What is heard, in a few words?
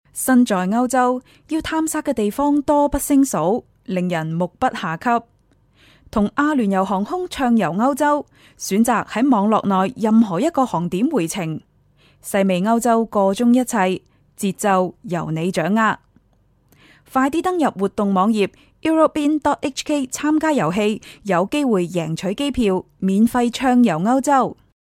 CANTONESE female